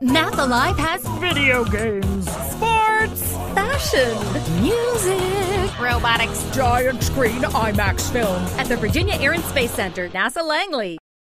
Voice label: Voice Over Artist High Energy Character Museum

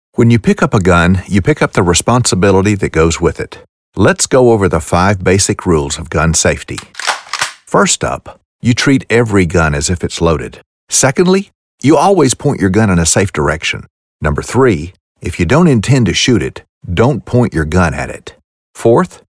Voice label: E Learning Gun Safety

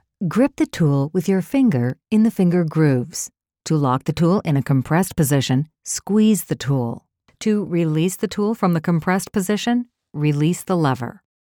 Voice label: Instructions Informative Articulate

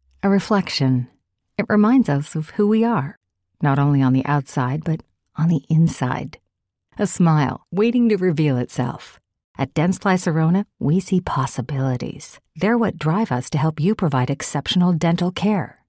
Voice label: Voice Over Artist Warm conversational; Dentsply

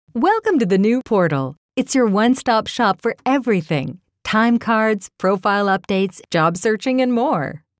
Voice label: Friendly energetic corporate eLearning

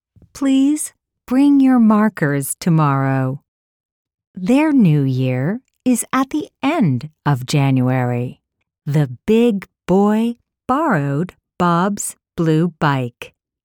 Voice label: English Second Language Primary

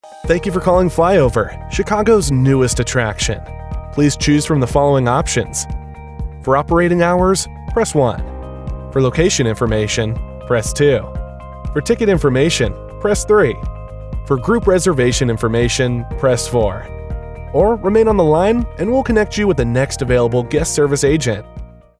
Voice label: Voicemail On Hold Message

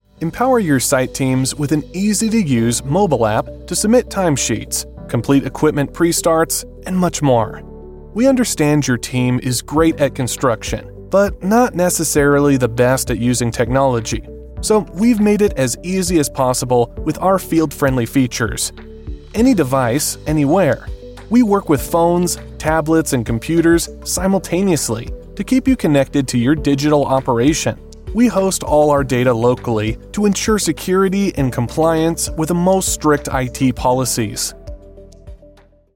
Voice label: Explainer, Elearning; Cloudcon

